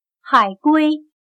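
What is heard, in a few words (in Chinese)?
"海龟", Chinese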